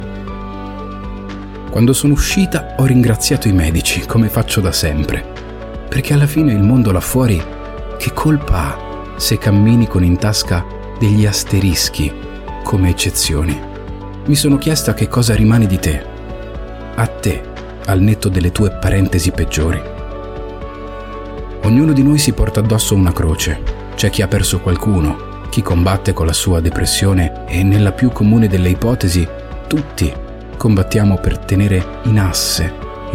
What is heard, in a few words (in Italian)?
audiolibro